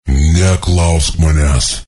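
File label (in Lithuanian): "neklausk", Lithuanian; cstrike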